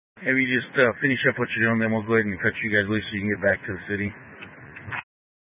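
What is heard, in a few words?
Incident Tactical 1, Scanner audio recording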